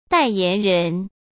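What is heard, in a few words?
"代言人", Mandarin Chinese; chinese flashcards; hsk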